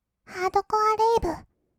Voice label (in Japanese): ハードコアレイヴ, kawaii voice, 音楽ジャンル; ASMR